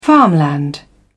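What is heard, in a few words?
"farmland", British English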